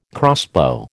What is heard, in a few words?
"crossbow", American